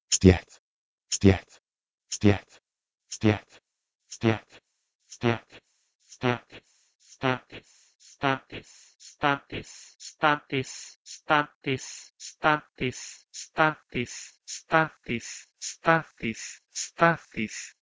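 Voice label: Proto-Indo-European "steh2t" to Ancient Greek "stasis"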